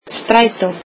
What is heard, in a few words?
"bus", Icelandic